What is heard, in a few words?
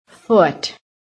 "FOOT", american